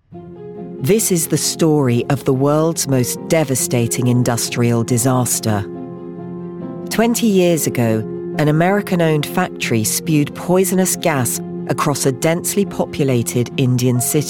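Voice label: documentary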